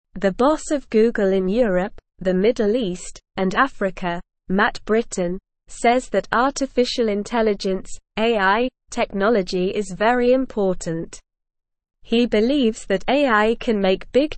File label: English Lower Intermediate SLOW Reading; Google and Cambridge University work together on AI; English Newsroom